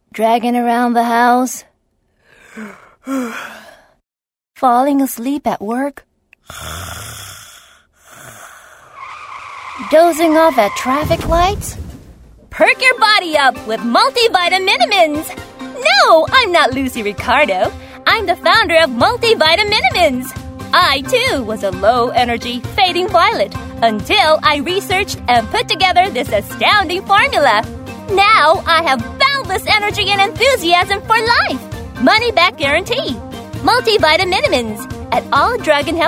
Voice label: Commercial Narrations